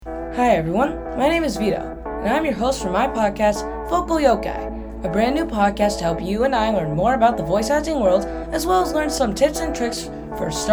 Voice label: Podcast Trailer with music; LIHSA Student Podcasts